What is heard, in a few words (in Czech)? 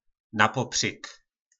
"Napopřyk", nářečí Po našimu; Napříč